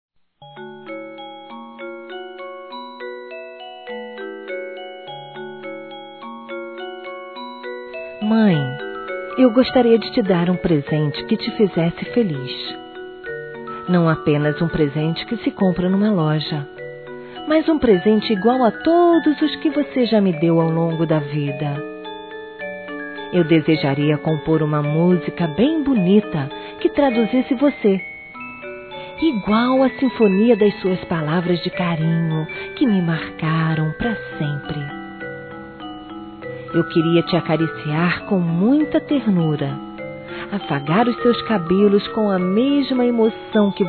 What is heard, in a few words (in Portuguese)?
MÃE PRESENÇA DE DEUS F; Telemensagens 2017